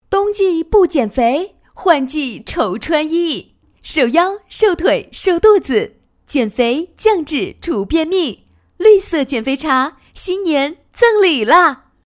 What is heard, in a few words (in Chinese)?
女 样音广告干声, 国语女声配音